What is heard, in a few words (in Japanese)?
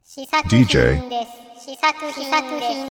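DJの言い方（男性）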